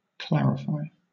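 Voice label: "clarify", British English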